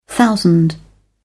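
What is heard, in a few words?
"thousand", British English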